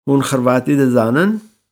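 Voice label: Kurdish, Sprechen Sie Kroatisch?; Hûn Xirvatî dizanin?; Kurdisch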